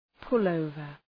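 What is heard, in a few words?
"pullover", English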